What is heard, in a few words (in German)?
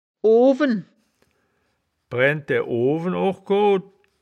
"oowen", wittlicher; das wittlicher woerterbuch; woerterbuch vertonung